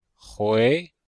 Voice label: "hui2", chinois; Cours-de-Chinois

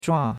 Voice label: "zhua4", chinois; Cours-de-Chinois

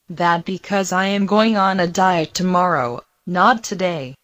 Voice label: Mandarin Conversation

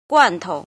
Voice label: "jin", Chinese

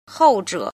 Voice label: "jin", Chinese

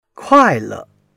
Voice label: "kuai4 le4", Chinese

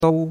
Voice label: "dou1", chinois; Cours-de-Chinois

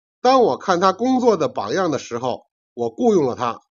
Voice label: "jian", Chinese

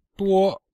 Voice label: "duo", Chinese

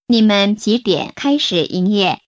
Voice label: Mandarin Conversation

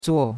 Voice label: "zuo4", chinois; Cours de Chinois

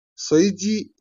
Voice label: "jian", Chinese

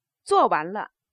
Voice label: interrogative, Chinese

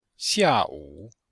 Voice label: "xia4 wu3", chinois; Cours-de-Chinois

